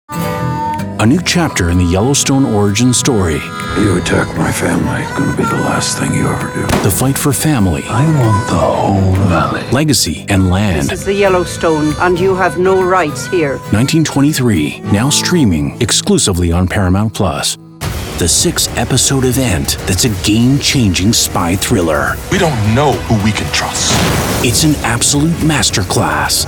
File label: VO Promo